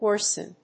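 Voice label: "worsen", English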